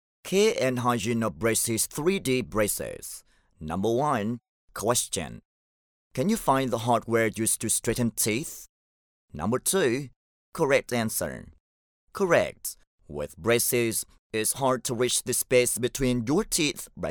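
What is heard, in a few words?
Vietnamese male voice over, English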